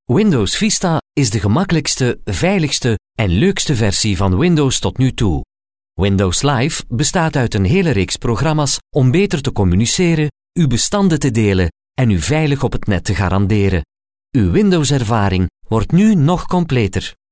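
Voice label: Flemish Belgium, male, voice over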